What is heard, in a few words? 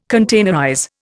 "containerize", Indian